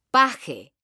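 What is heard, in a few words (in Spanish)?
"PAJE" Spanish, female; mekanta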